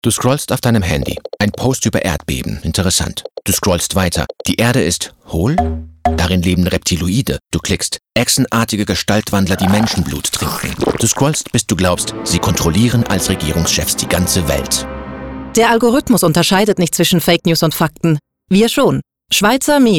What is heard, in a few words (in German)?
radio, musik; Reptiloide übernehmen die Weltherrschaft; chmedien